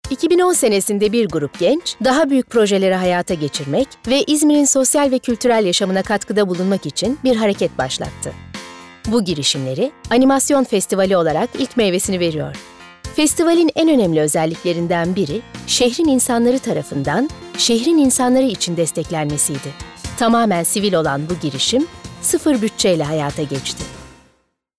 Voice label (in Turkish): Tanitim